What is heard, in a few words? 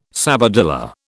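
"sabadilla", British English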